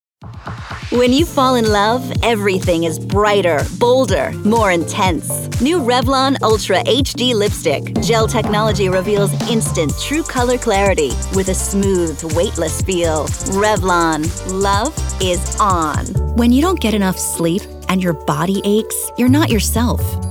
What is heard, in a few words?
COMMERCIAL